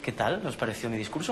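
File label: Voice Acting es Male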